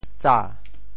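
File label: "tsa2", Teochew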